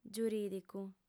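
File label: "giurìdicu", Sardinian, female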